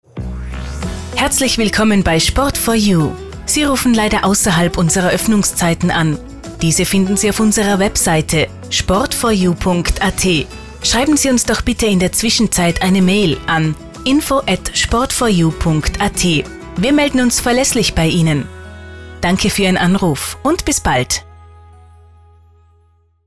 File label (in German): Soelden Nachtansage; Sport-4-You; telefonansagen tourismus